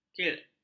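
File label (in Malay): "kilt", Malay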